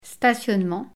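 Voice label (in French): "stationnement" French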